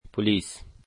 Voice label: "police", Dari

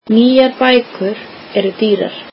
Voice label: icelandic new books are expensive

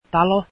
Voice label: finnish house

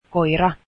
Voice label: dog, Finnish

